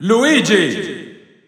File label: Italian Announcer; Luigi; SSBU